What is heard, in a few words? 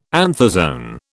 "anthozoan", British